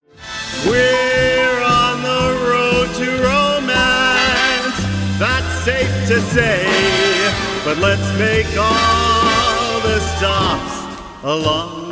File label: Vocal Performance, en-AU